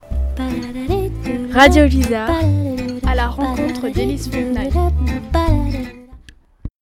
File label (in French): jingle emission fille